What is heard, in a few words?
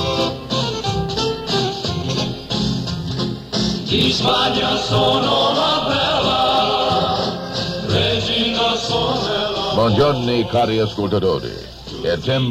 italian